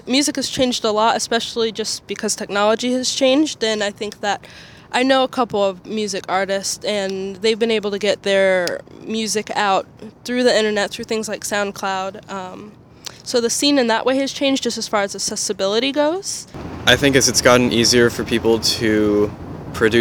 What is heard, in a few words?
voxpop